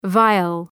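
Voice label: "vial", English word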